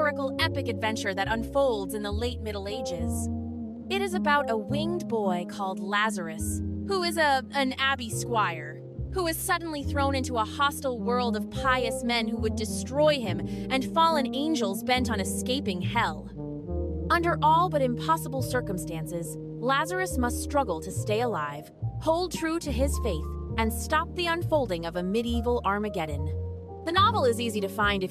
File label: grotesque promo female vo; Jazzy Girl Novel Summary